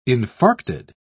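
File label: "infarcted", British English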